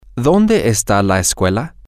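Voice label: where is the school, Spanish, male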